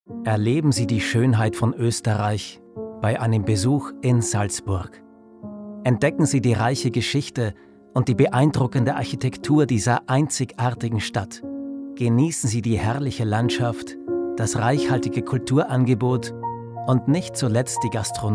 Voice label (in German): Werbung; Salzburg